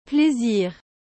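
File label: "plaisir", French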